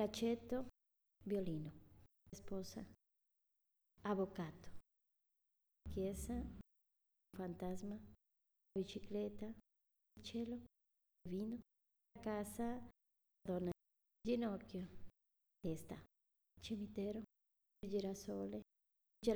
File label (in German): Zusammengefugte Worter Schnell Italienisch